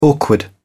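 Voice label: "awkward", British English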